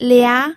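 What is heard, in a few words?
"lia", second tone, pinyin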